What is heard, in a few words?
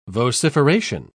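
"vociferation", British English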